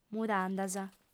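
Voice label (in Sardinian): "mudandas", female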